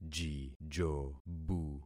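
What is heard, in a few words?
"jijobu", concatenated syllables; Affixing experiment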